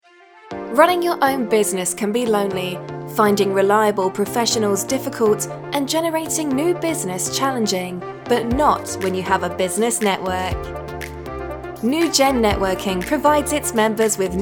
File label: Advert